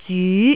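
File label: "zyu2", Cantonese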